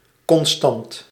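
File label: "constant", Dutch; нидерл.; Констант (художник)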